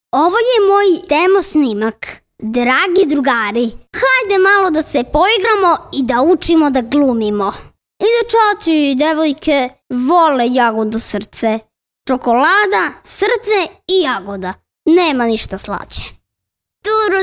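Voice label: Serbian 8 year old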